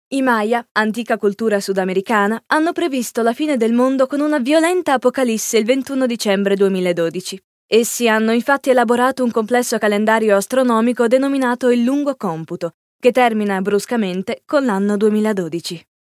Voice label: it documentary

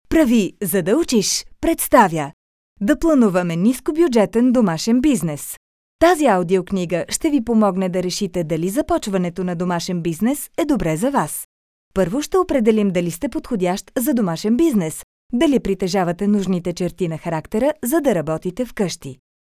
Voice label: bulgarian informative

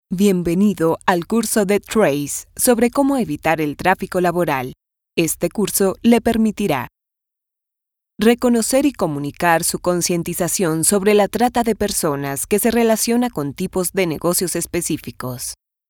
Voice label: spanish latin colombian informative

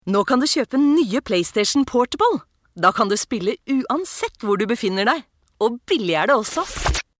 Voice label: no commercial fresh

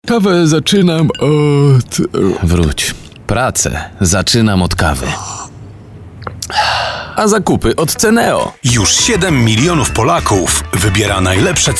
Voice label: pl commercial

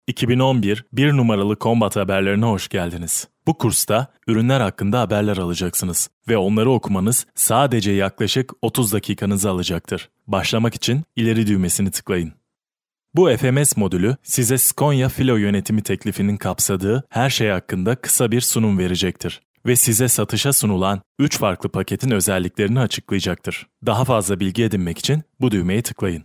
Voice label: tr elearing

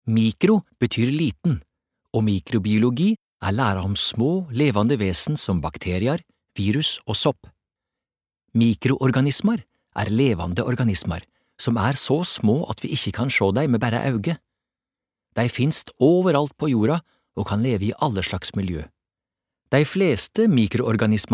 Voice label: no nynorsk

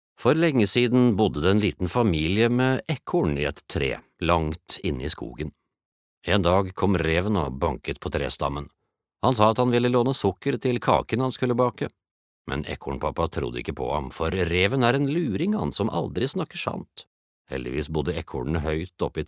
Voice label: no childrens book

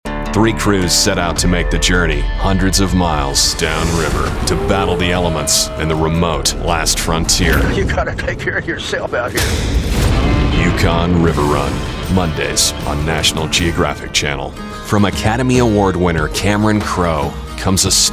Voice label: us promo

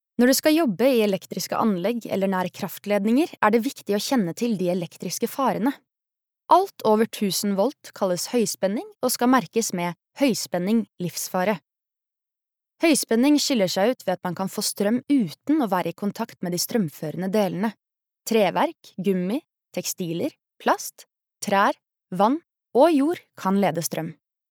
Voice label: Norwegian elearning, norwegian, female

